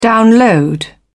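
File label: "download", British English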